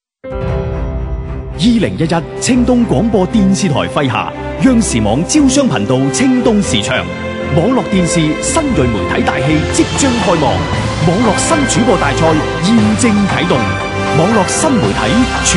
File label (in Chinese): 男 粤 大气广告